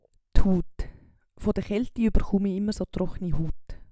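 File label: "d Huut", Swiss German vocabulary; Wortschatz Kapitel 6; Kapitel 6 Zusatzwortschatz 5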